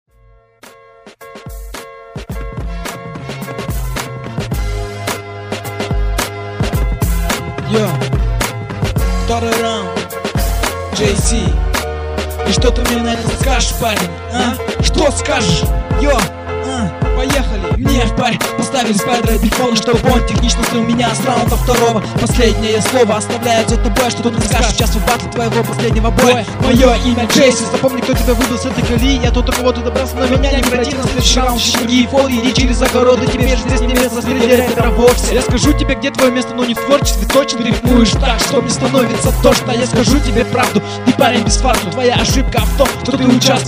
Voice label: rap; astana r2

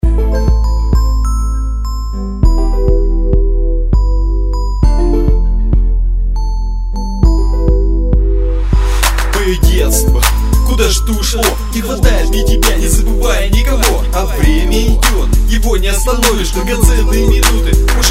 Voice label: hip-hop battle

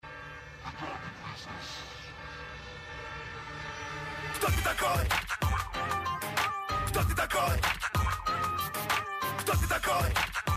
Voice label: battle rap

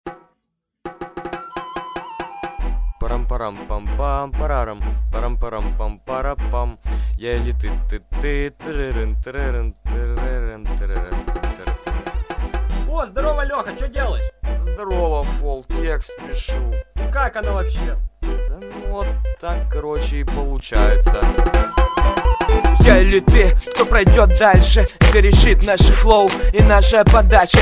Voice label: hiphop battle

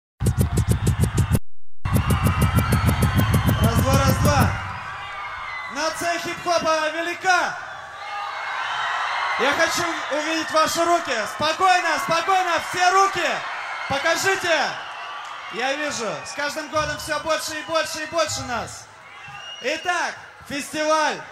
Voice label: rap music

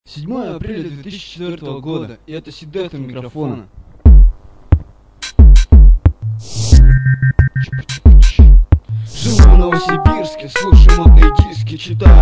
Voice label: hiphop; 1round hiphop community

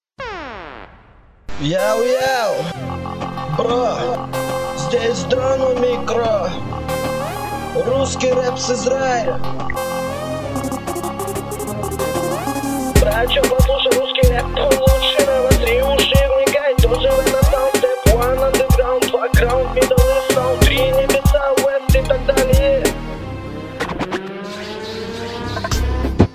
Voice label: russian rap solo

